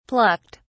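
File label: "plucked", British English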